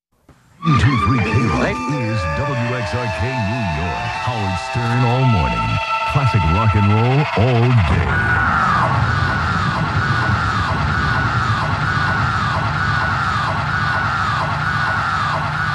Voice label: Station ID